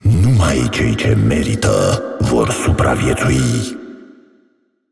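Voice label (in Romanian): assist, Romanian; VO; Zed; bloom minigame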